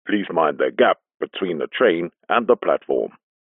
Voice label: transport train recorded message male english please mind the gap between the